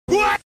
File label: chinese what